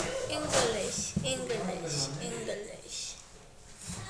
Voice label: English

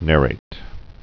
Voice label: narrate

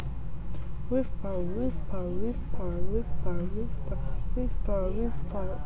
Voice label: whisper

